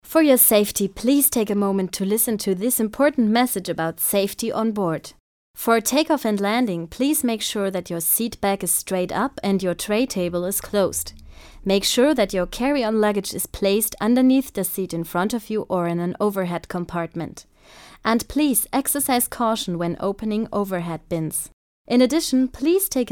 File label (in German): Audioguide Englisch